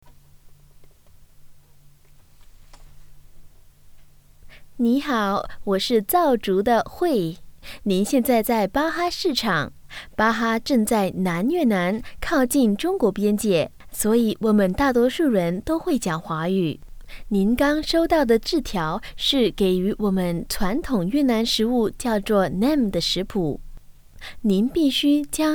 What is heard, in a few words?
Character Narrate, Mandarin